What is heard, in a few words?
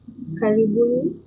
welcome, swahili